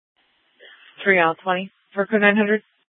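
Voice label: Scottsdale PD North Dispatch; Nth Dispatch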